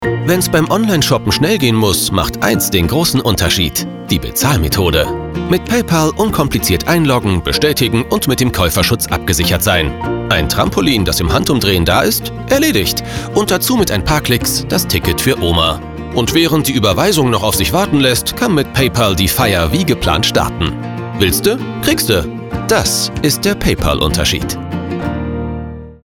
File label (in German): Sprecherdemo Werbung; PayPal